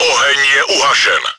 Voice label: fire stopped, cz; Uhasili jsme plameny.; Czech; Мы потушили пламя.; World of Tanks

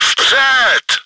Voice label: start battle, cz; Vpřed!; Вперёд!; Czech; World of Tanks

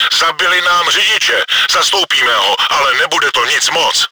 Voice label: driver killed, cz; Zabili nám řidiče. Zastoupíme ho, ale nebude to nic moc.; Czech; Нам убили механика-водителя. Мы его заменим, но это будет не фонтан.; World of Tanks

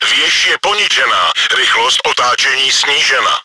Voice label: turret rotator damaged, cz; Věž je poničena, rychlost otáčení snížena.; Czech; Башня повреждена, скорость вращения снижена.; Повреждение/ремонт башни; World of Tanks

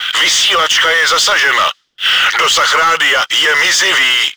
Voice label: radio damaged, cz; Vysílačka je zasažena, dosah rádia je mizivý.; Czech; Попали в радиостанцию, дальность связи ничтожна.; World of Tanks

